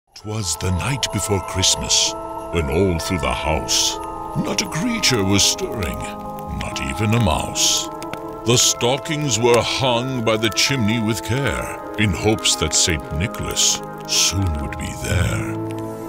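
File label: santa storyteller English USA Male Narrative; Narration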